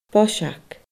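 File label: "boiseag", Scottish Gaelic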